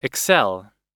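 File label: "excel", US English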